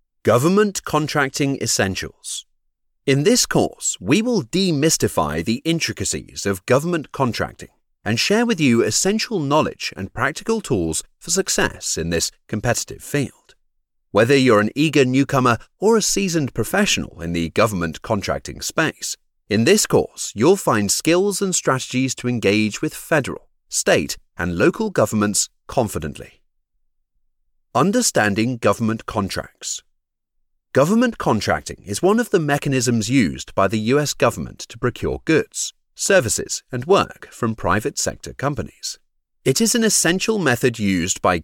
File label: british voice over, elearning; dezign villa